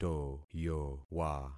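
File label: "toyowa", concatenated syllables; Affixing experiment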